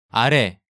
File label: "Arae", Korean